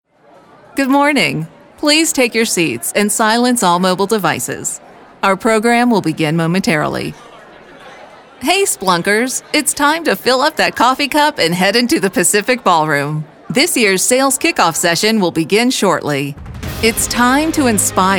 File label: Corporate Events Announce